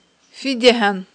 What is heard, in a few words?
"фидегьан", Aghul